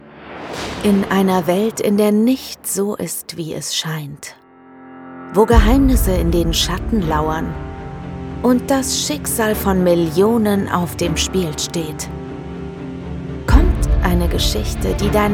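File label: german movie trailers, Female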